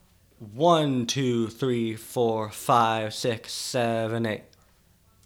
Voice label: Counting loud

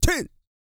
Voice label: COUNT, Vocal Planet, R&B & Dance, COUNTING; ILIO

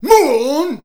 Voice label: "move on", shouts; Best Service; Hyper Dance

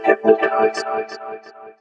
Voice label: "hypnotise" A2, robotic vox; Zero-G Club Techno

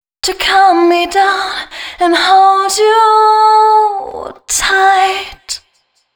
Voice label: female 138, hooklines, Dance Ultra Voice; Mutekki Media; Dance Ultra Voice VOL-1

